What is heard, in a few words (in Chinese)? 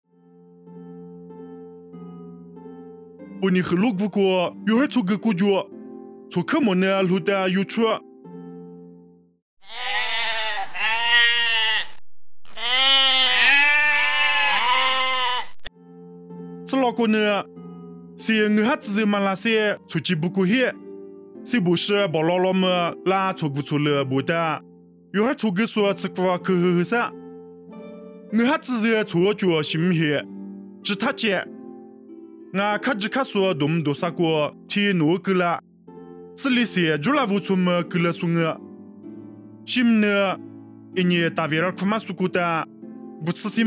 凉山彝语; 以色列伯利恒; nuosu